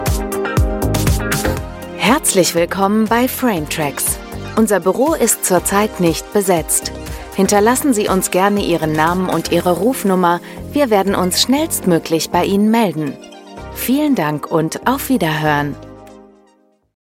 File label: german voice telephone